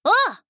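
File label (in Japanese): 「おう！」, 女の子 オラオラ系少女 リアクションボイス うなずく; 基本1│応答・呼びかけ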